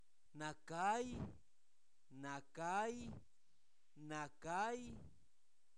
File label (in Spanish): Zapoteco Albarradas, santo domingo albarradas; adjetivos; oscuro; INALI